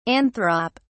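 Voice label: "anthrop", British English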